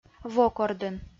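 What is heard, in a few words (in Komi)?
"вок ордын", Komi, female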